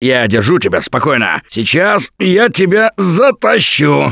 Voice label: Ledge Save, russian, Survivor Voice; Gambler